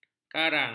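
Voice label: "karang", Malay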